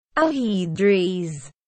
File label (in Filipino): Ahedres, Filipino